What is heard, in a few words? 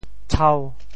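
"tshau5", Teochew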